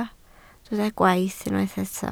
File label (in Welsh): "gwaith", Welsh